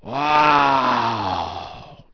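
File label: male wow; People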